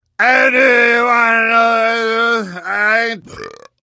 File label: gibberish; demoman; source engine; tf